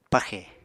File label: "paxè", Apatani